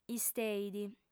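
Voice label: "isteit", female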